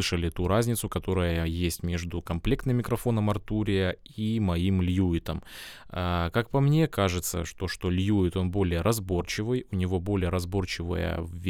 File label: lewitt 440 pure test golos; Lewitt 440 Pure - Тест Голос